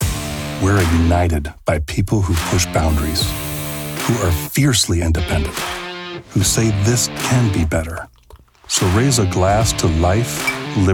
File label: Commercial